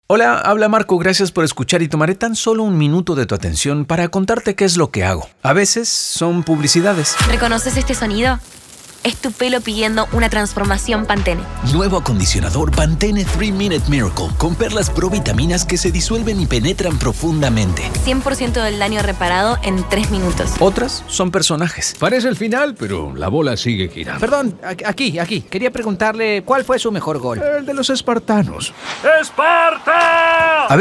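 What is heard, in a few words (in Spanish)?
Locutor neutro; spanish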